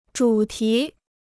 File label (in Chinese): "主题", Chinese; 主题 (zhǔ tí); theme; subject